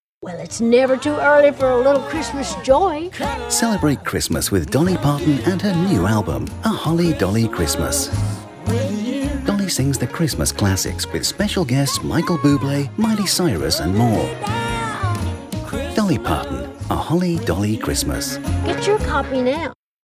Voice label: British Voiceover TV Commercial

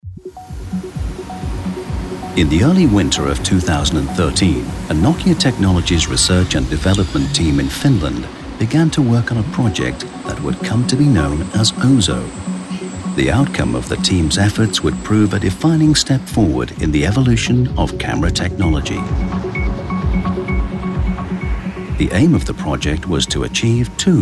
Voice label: British Voiceover, Documentary; NOKIA BIRTH OF OZO

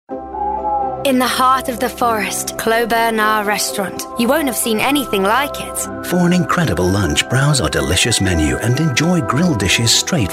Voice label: British Voiceover Radio Commercial RP; CLOS BERNARD

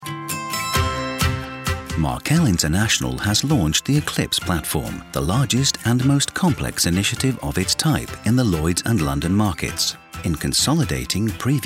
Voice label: British Voiceover, Corporate Film; MARKEL INTERNATIONAL

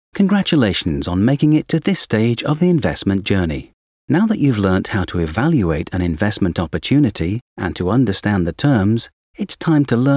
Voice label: British Voiceover eLearning; ELEARNING Reel

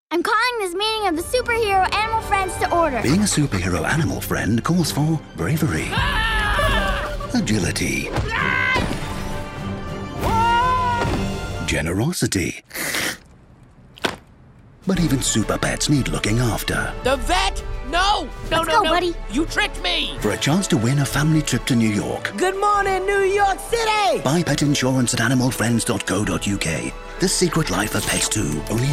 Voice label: British Voiceover TV Commercial; SECRET LIFE OF PETS 2; Animal Friends Insurance

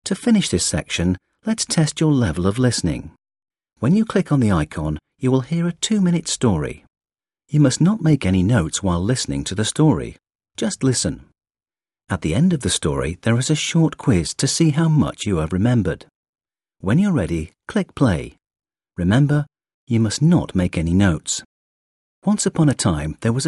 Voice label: British Voiceover eLearning; BRITANNIA PHARMACEUTICALS; Listening

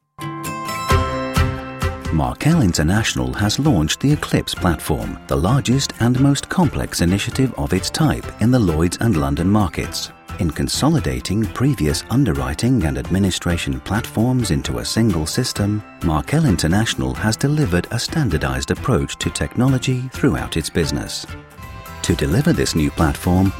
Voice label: British Voiceover Corporate Film; MARKEL INTERNATIONAL

